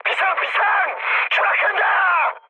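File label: Korean aircraft destroyed mood high, speech; War Thunder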